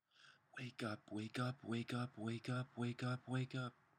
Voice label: Wake Up Repeated Several Times

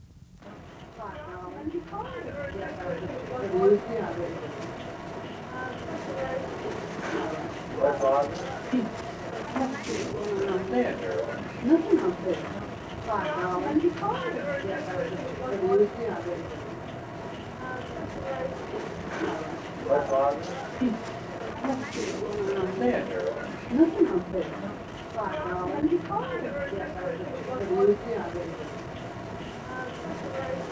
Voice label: Small Group Of Men And Women Talking

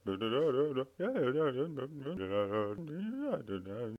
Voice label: Mumbling Male Short

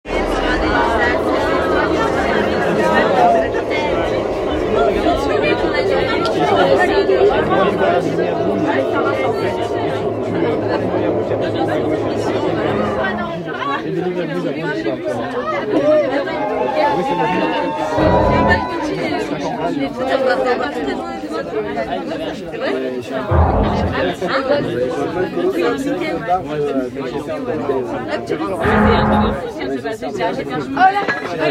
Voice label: People Talking

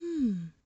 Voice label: Female Compasive Voice

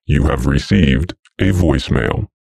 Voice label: Voicemail

